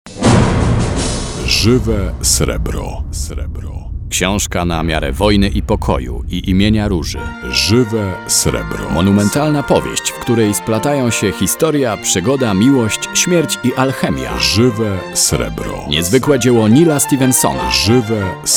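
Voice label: Male, Polish, commercial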